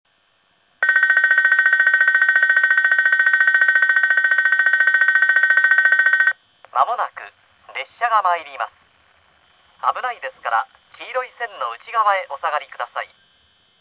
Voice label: カラカラベル 男性 女性; 汎用・少数・分散型; 長者原 波多江 肥前麓; ＪＲ九州の自動放送; type-B：カラカラベル

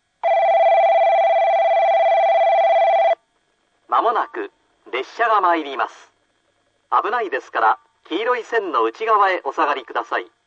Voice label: 電子ベル＋まもなく列車が参ります。危ないですから、黄色い線の内側へおさがり下さい。; 汎用・少数・分散型; 九州汎用型放送; ベル＋フレーズ; type-A：電子音; ＪＲ九州の自動放送

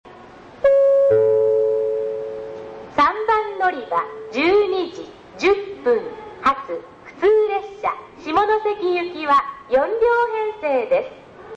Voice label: 案内放送 普通・下関; 小倉駅; ３番のりば; 旧フレーズ(2001年2月末日収録); テレコ収録につき音量注意

